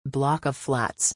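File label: "block of flats", English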